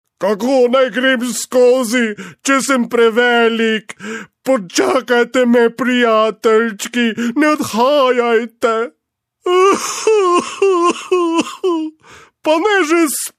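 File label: slovenian male character; voice demos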